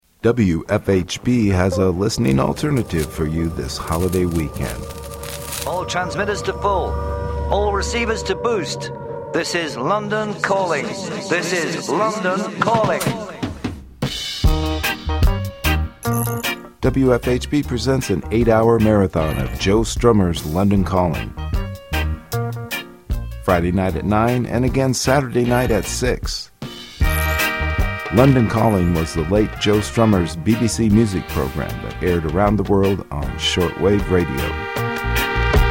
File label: PROMO; LONDON CALLING 2016